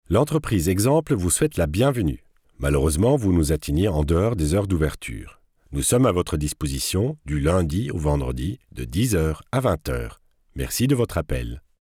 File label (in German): telefonansage